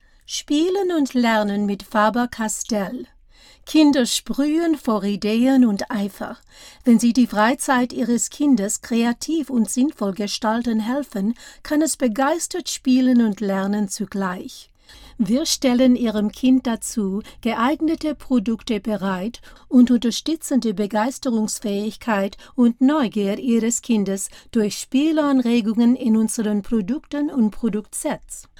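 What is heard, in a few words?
German Austrian audiobook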